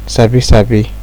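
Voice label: "sabi sabi", Nigerian Pidgin, male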